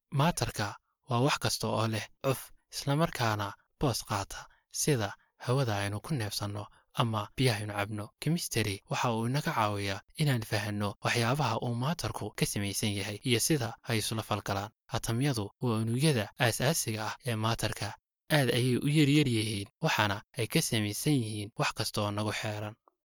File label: male somali voice over talent narrative